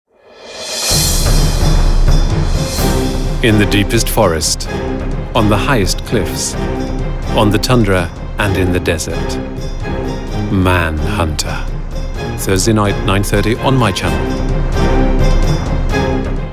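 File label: British commercial tvpromo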